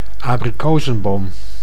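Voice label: "abrikozenboom", Dutch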